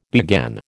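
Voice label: "began", American English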